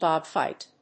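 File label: "dogfight", English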